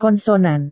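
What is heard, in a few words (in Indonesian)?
"konsonan", Indonesian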